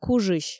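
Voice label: "кужысь", Komi, female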